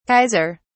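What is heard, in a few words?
"kaiser", British English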